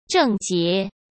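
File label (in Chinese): "症结", Mandarin Chinese; hsk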